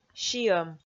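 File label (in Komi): "сиӧм", Komi, female; kpv audio dict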